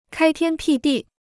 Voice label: "开天辟地", Chinese